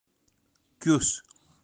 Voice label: "Kyus", kashmiri birds